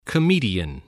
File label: "comedian", voice